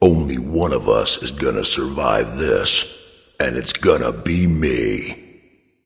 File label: taunt, voice; smite; voicepack; tyr